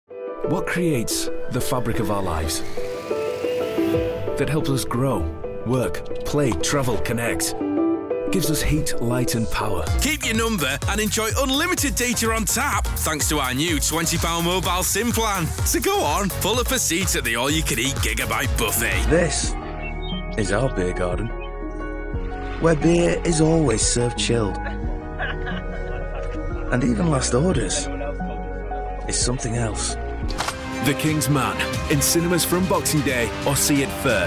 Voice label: Commercial; Showreel